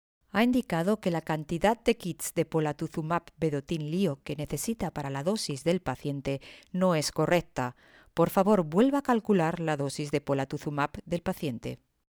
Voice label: spanish ivr